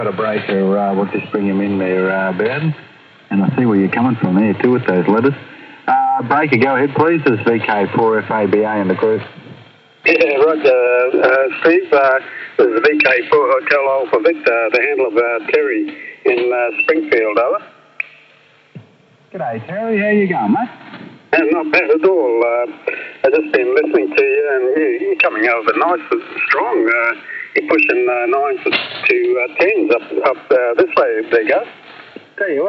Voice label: NR test 7155kHz local; LMS Noise Reduction test - 40m Amateur band; NR is turned off for a short while in this MP3 / to show effectiveness. Some audio clipping is heard; A DSP speech processor